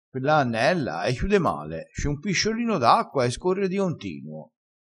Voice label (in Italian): "pisciolino", crespinese